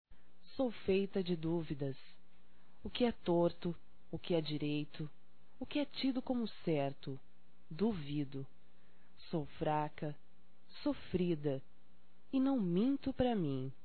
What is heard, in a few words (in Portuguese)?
Indecisão Feminino; Românticas